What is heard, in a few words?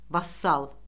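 "vassal", Azerbaijani; Orfoqrafiya Lüğəti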